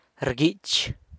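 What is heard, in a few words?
"rguich", San Lucas Quiaviní Zapotec; breaks (a long object)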